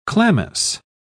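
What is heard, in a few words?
"chlamys", English, British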